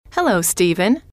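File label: hello, female; trainer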